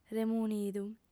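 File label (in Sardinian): "remunidu", female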